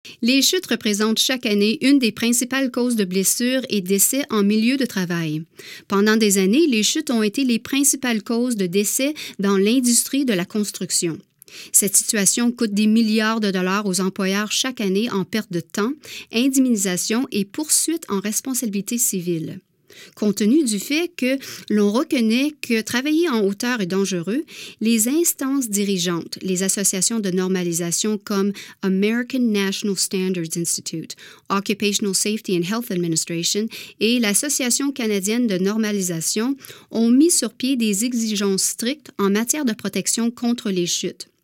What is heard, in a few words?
web french